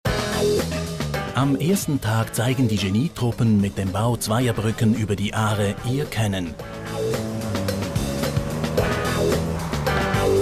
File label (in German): Promotionsfilm; Schweizer Armee – Promotionsfilm; armee-ausstellung